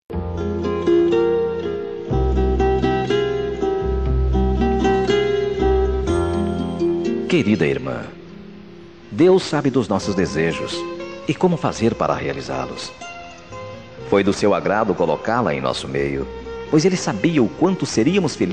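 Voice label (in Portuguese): Evangélica Aniversário de Irma, male, telemensagens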